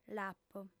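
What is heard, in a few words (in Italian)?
"l'apo", lingua sarda, fem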